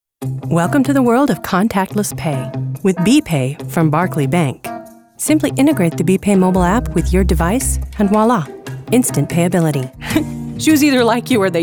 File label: Commercial